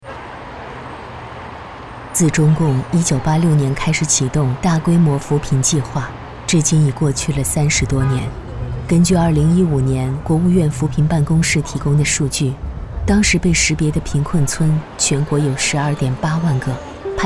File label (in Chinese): 女 纪录片 扶贫纪录片 低沉讲述; 青春之歌